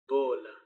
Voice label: "boola", Palula